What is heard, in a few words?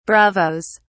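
"bravos", British English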